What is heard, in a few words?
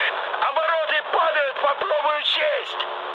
damaged engine, mood high, speech ru; War Thunder